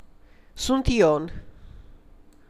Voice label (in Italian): tel ro, frasi utili, audio rumeno